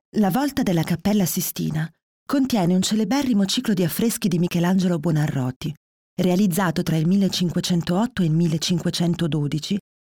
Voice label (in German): hoerprobe audioguide